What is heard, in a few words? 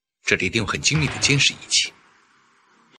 vocals